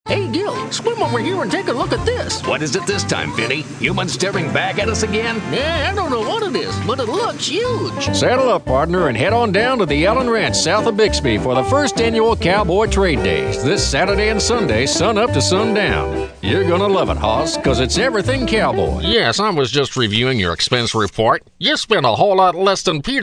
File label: Cartoon Voices